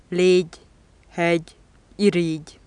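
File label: palatal stop voiced final, hungarian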